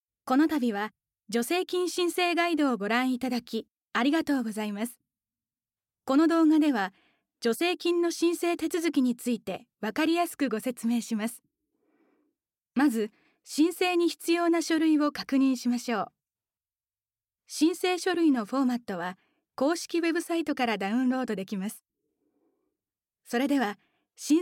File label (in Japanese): ナレーション